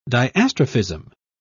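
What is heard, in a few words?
"diastrophism", British English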